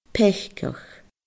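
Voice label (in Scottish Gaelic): "peacadh", Scottish Gaelic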